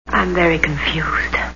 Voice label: confused; Charade